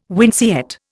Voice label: "winceyette", Indian